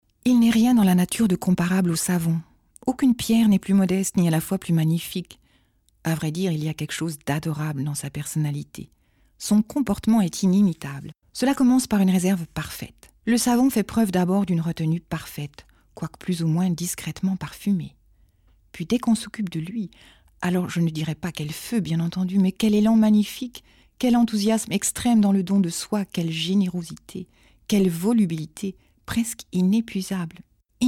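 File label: französisch, French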